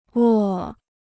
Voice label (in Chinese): "卧", Chinese